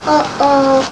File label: uh oh